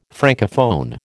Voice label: "Francophone", American

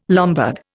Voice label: "Lombard", Indian

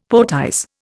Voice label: "poetize", Indian; Dictionary

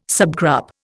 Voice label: "subgroup", Indian

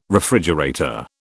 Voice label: "refrigerator", British

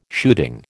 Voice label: "shooting", American

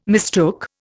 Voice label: "mistook", Indian

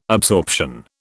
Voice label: "absorption", British

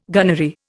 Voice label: "gunnery", Indian

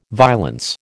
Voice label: "violence", American

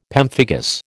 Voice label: "pemphigus", American

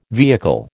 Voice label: "vehicle", American

